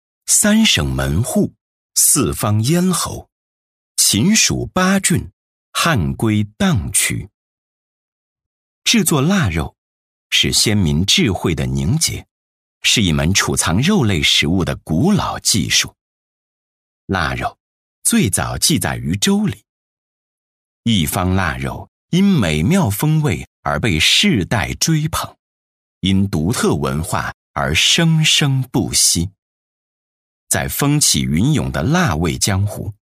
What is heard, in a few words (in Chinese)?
男-纪录片-舌尖感觉, 磁性低沉 舌尖感; 城口老腊肉